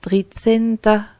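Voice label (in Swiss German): "dritzehnta", Alsatian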